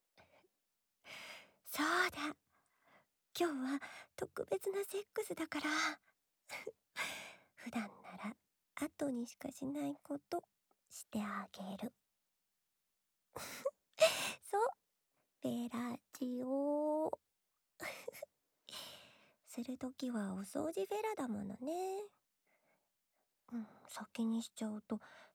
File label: LOVE Whisper